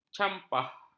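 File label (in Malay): "campah", Malay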